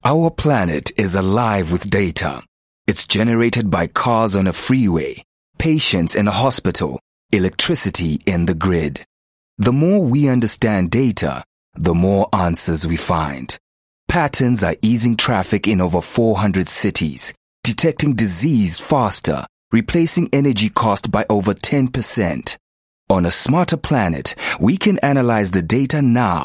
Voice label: English Softsell